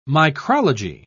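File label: "micrology", British English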